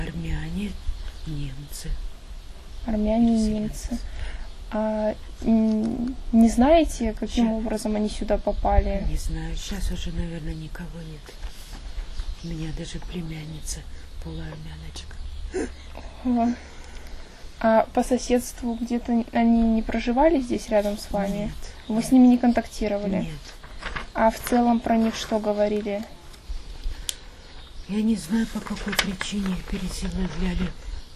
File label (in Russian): Русские, переселенцы кержаки, интервью; с. Дружба; алейский район